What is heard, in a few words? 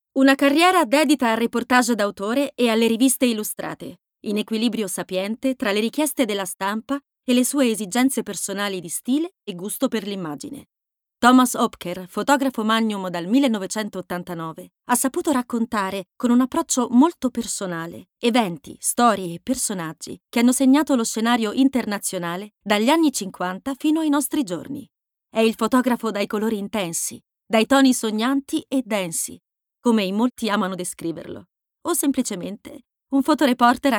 Voice Over, ITALIAN FEMALE; voice reel